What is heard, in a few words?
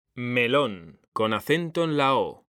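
"melón", Spanish, male; mekanta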